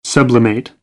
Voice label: "sublimate", American, English; ə - ɪ